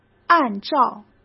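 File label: "按照", Mandarin Chinese; hsk; chinese-flashcards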